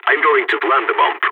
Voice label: going to plant bomb, balkan; vo